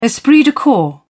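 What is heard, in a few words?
"esprit de corps", British English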